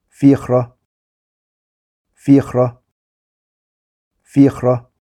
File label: "Fiachra" Irish names for boys